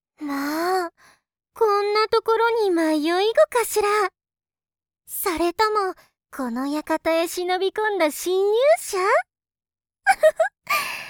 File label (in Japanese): 悪役 お嬢様 優雅 13歳; jtb-ent-voice